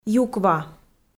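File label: "юква", Komi, female; kpv audio dict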